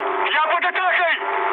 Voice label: aircraft damaged, mood med, speech ru